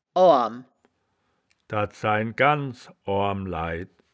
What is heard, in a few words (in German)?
"oarm", Wittlicher; das wittlicher woerterbuch; woerterbuch vertonung